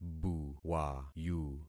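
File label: "buwayu", concatenated syllables; Affixing experiment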